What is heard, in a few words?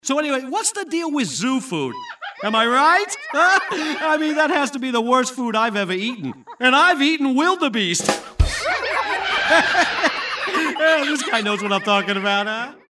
humorous